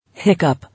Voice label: "Hiccup", English

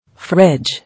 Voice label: "Fridge", English

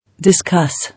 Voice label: "Discuss" English

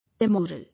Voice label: "Immoral", English

